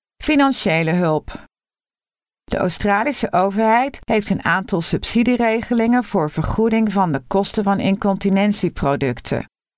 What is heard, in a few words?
Dutch, Financial Assistance; Continence Foundation